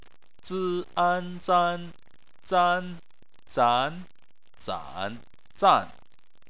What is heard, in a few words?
"zan", chinese